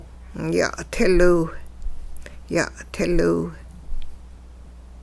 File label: they dive swim underwater, hupa